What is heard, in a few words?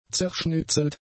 "zerschnitzelt", German; adjectives